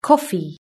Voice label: "coffee", british english